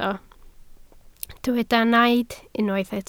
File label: "naid", Welsh